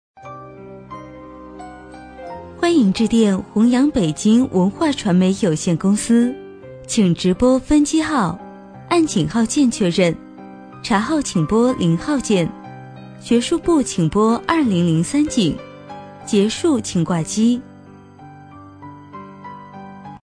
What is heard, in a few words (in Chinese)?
女 彩铃 温柔